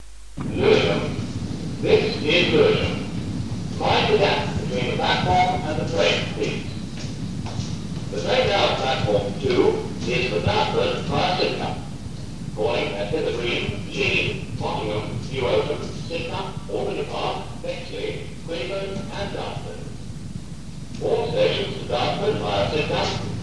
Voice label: pre-recorded platform announcement; lewisham